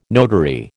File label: "notary", American